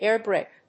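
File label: "air brick", English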